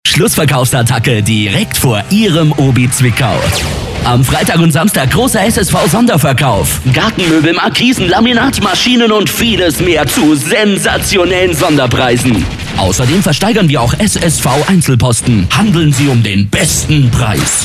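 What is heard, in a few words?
German, male, commercial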